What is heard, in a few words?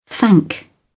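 "thank", English, British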